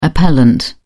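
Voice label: "appellant", British English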